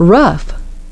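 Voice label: "rough", English pronunciation; rʌf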